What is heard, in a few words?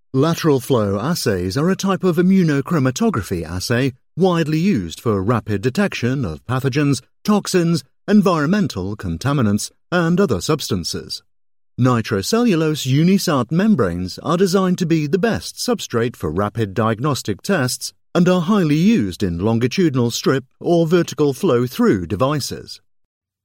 voiceover medical; British